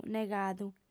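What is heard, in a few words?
"negadu", female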